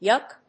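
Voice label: "yuck", English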